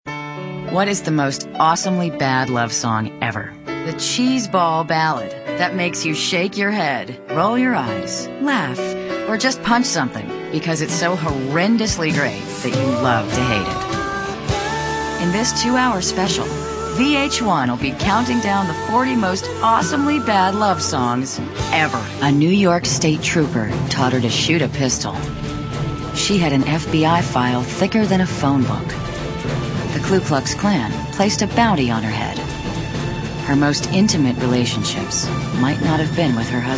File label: female narration